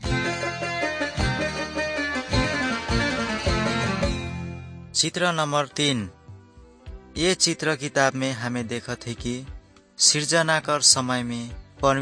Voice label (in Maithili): good news maithili, nt drama; maithili kisan; wycliffe bible translators inc